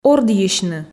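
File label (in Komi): "ордйысьны", Komi, female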